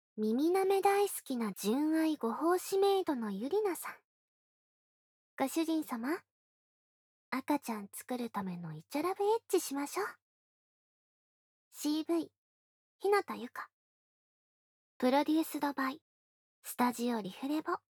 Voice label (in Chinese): 标题朗诵; 无SE